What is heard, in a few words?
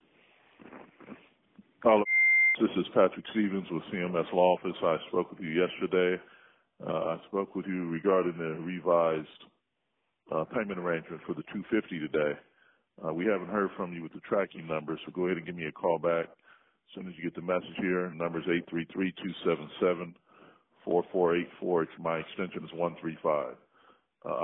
Voicemail